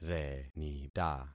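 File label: "renita", concatenated syllables; Affixing experiment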